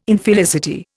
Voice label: "Infelicity", Indian